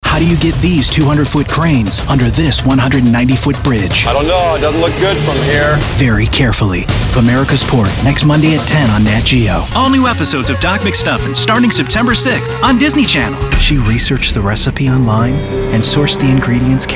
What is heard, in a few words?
promo men